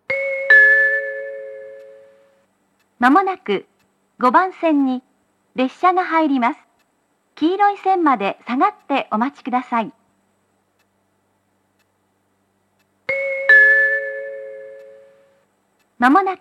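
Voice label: platform 3 approaching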